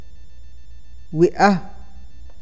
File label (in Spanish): cabeza, awakateko